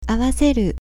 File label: "awaseru", Japanese